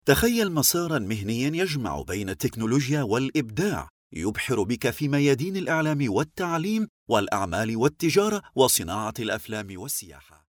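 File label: Arabic, male, narration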